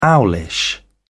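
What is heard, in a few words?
"owlish", British English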